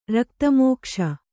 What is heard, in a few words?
"ರಕ್ತಮೋಕ್ಷ", Kannada